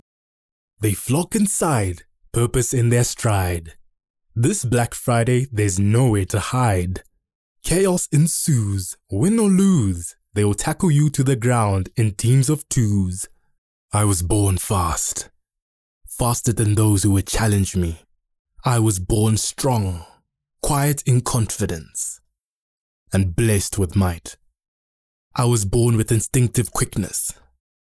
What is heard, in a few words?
male african voice over narrative